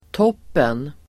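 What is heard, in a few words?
"toppen", Swedish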